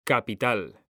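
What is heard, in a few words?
"capital", Spanish, male; mekanta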